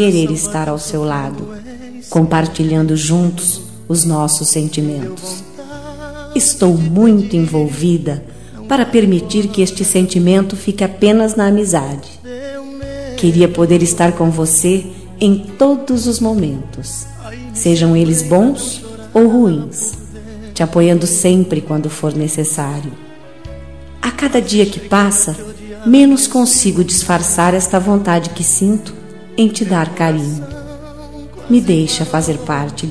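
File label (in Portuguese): Rom sertanejo fica comigo, feminino; Telemensagens; Românticas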